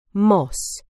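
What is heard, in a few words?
"moss", British English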